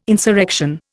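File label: "insurrection", Indian English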